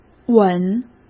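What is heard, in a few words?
"吻", Mandarin Chinese; hsk; chinese-flashcards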